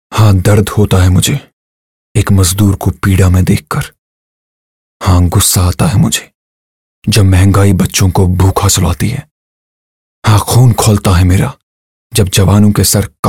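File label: Hindi Male Voice Over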